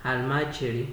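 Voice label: "almajiri", Nigerian Pidgin, male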